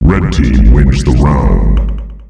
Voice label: red team wins the round, announcer male; Unreal Tournament; ut2004

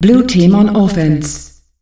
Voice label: Blue Team on Offence, announcer female; Unreal Tournament; ut2004

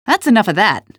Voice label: cit hit, female; Half-Life 2; trainyard

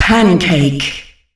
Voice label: "Pancake", female announcer; Unreal Tournament; ut2004

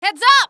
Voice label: heads up, female; Half-Life 2

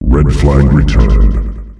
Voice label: "Red Flag Returned", announcer male; Unreal Tournament; ut2004

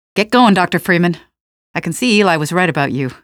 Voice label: gunboat eli right, female; Half-Life 2; canals

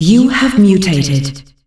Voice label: you have mutated, female announcer; Unreal Tournament; ut2004

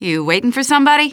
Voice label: waiting somebody, female; Half-Life 2

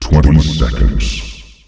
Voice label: "20 seconds", announcer male; Unreal Tournament; ut2004

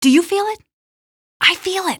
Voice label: question, female; Half-Life 2

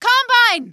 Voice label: combine, female; Half-Life 2

